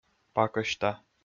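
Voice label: "пакӧсьта", Komi, male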